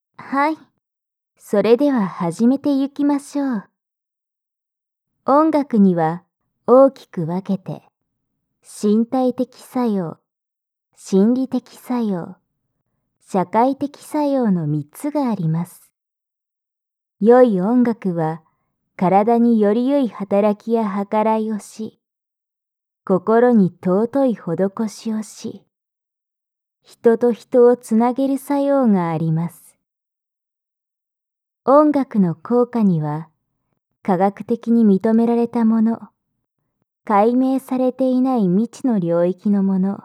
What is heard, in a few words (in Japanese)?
催眠導入